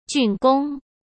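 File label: "竣工", Mandarin Chinese; hsk; chinese-flashcards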